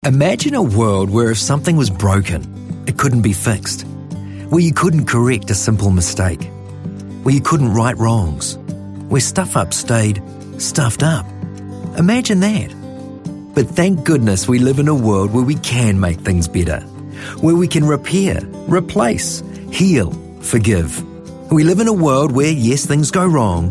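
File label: New Zealand, male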